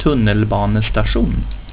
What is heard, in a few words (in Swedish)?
"tunnelbanestation", swedish; reizen